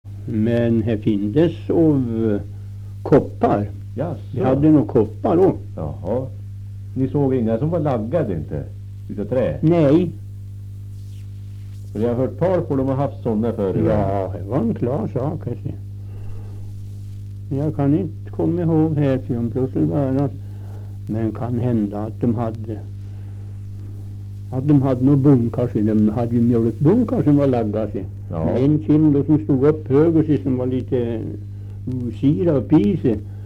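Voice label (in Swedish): Österfärnebo Gästrikland, dialekter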